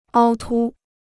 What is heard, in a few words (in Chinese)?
"凹凸", Chinese